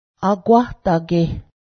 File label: arch, Cayuga; akwa̱hdágeh; arch (foot)